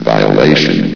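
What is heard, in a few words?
"violation", male; vox; source engine; hl1; video games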